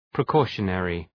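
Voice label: "precautionary", English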